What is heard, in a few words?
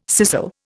"scissel", Indian